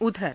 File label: "udhara", Hindi